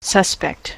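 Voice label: "suspect" noun adj, US English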